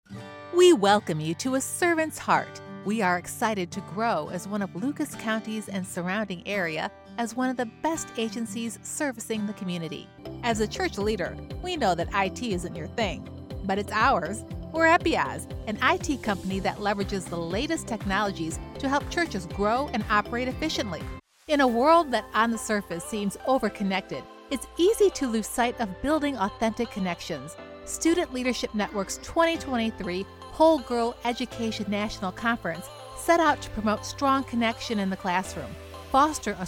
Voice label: corporate narration